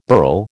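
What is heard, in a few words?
"burl", American English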